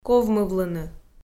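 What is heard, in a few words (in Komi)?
"ковмывлыны", Komi, female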